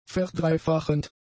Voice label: "verdreifachend", German; adjectives